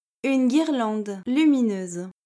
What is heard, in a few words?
"une guirlande lumineuse", French